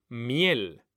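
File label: "miel", Spanish, male; mekanta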